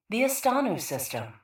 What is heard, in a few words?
PA System